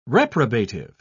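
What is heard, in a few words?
"reprobative", British English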